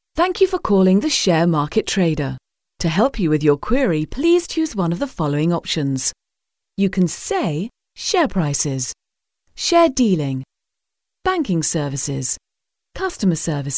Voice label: telephone